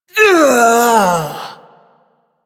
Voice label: Ability Long Cast, Barks; Warframe; Corpus Lich; Persona C

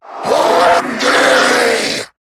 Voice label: Cover Me, en; Zariman Wraith

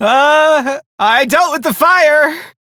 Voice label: Crew Fix Fire, Male; Dialog; Warframe; Railjack Crew; Perrin Sequence

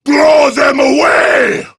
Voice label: Enemy Spotted Prime Grineer Male; Tau; Battle Barks

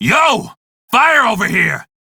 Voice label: crew see fire, male; Dialog; en; Warframe; Railjack Crew; Red Veil

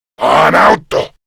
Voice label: Attack Grineer Male; Warframe; Battle Barks

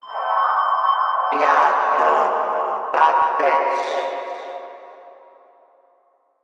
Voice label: Female, Announcer; Warframe; Corpus Venus Announcer Female; Dialog Barks Battle

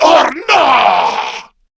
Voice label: Surprised, Male; Warframe; Grineer Male B; Battle Barks

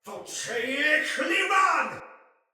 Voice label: Attack Soldier Male; Battle; Sinecure; en

